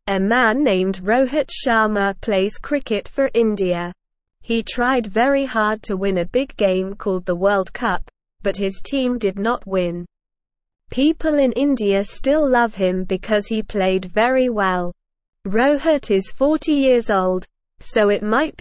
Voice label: English Newsroom Beginner SLOW Reading; Rohit Sharma A Cricket Hero Who Never Gives Up